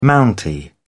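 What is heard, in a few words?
"mountie", British English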